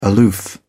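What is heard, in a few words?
"aloof", British English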